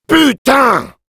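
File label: negative vocalization, French; "Putain!"; Demoman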